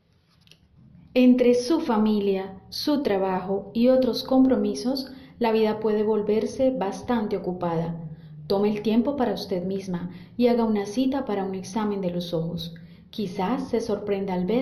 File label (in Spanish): publicidad